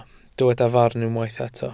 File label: "farn", welsh